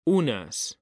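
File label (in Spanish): "unas", Spanish; mekanta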